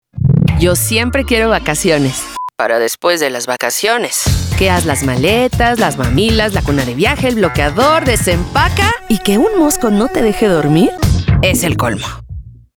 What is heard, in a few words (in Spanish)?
Mama Joven Real Autentica